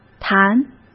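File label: "弹", Mandarin Chinese; chinese-flashcards; hsk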